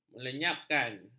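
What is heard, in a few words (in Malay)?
"melenyapkan", Malay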